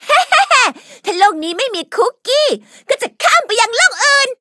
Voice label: upgrade, th; Cookie Run